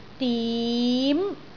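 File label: "dim2", Cantonese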